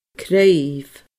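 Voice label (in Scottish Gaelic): "cnuimh", Scottish Gaelic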